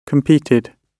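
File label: "competed", British English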